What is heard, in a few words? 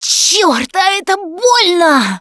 gooed by spitter, russian; Producer